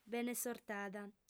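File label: "benesortada", female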